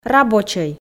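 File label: "рабочӧй", Komi, female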